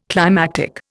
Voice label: "climactic", Indian